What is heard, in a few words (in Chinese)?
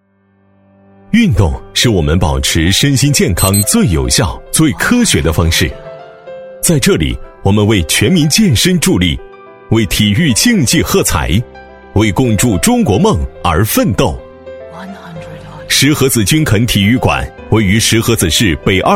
男 【宣传】 年轻朝气风格; 建筑